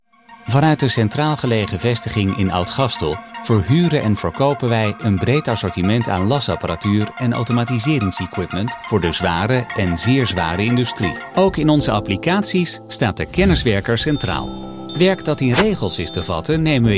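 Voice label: Dutch Netherlands, male, corporate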